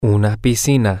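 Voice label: a Swimming Pool, Spanish, male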